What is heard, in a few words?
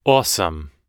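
"awesome", US English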